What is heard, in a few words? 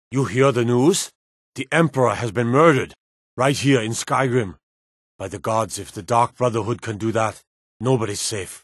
Male Nord Commander dialogue guards general